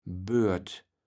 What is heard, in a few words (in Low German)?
"bört", kaevels platt